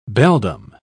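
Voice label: "beldame", British English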